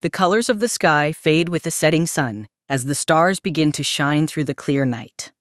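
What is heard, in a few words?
US English; en-US; iOS Sounds; quinn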